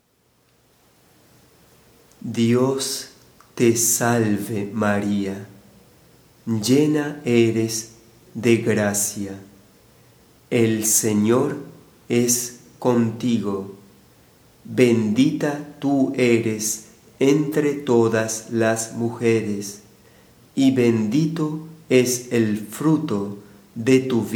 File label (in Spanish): espanol lento, ave maria